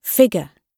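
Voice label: "figure", British English; Oxford Audio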